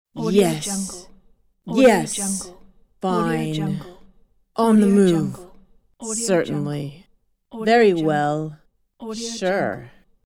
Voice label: female saying yes confirm

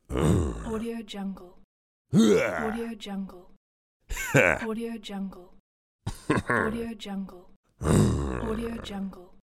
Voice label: bandit game character voice

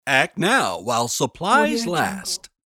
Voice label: announcer act now while supplies last

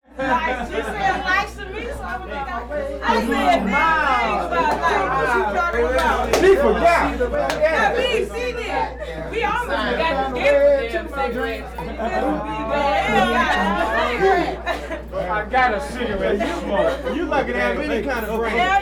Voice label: party walla constant bass player jams laughter

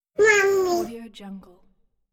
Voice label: cartoon baby voice say mommy

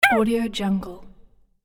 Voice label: little character cartoon ow

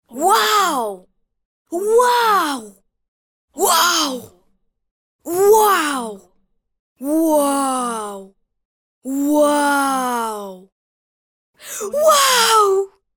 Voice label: child saying wow

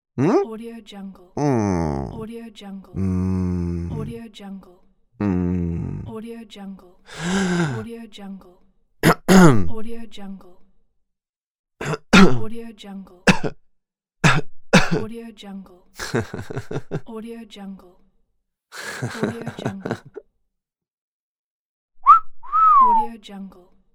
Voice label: male voice expressions

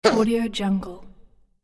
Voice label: small critter game character voice

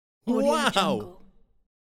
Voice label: male wow

